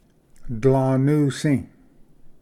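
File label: "dlanusi", Cherokee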